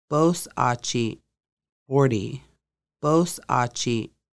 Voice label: Forty, conversational Lushootseed; Conversational Lushootseed: Lessons 1-5

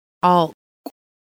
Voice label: away from center of house, Lushootseed

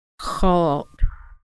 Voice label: school, Lushootseed

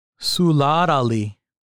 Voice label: Main Hall "suladali", Lushootseed; longhouse

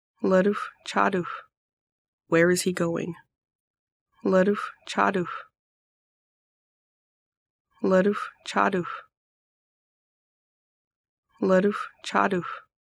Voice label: Where is he going, Lushootseed; lədxʷčadəxʷ

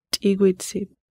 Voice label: thank you, conversational Lushootseed; Conversational Lushootseed: Lessons 1-5

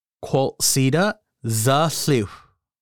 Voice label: Quil Ceda creek, Lushootseed; qʷəl̕sidəʔ dᶻəɬixʷ

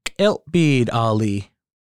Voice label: Canoe Hall "q̓il̕bidali", Lushootseed; longhouse

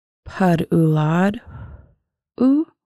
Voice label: Is it fall pədʔuladxʷ ʔu, Lushootseed

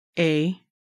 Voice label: yes, Lushootseed

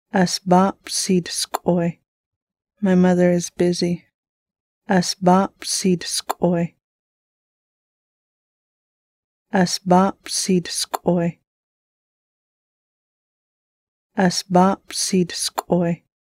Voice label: my mother is busy, Lushootseed; ʔəsbap ts(i) dsk̓ʷuy

